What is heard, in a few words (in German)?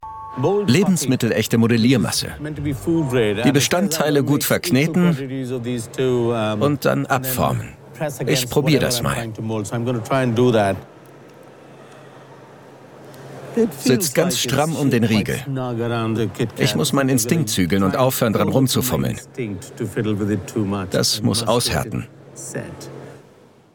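Voice Over, Männer; Snackmaster; KitKat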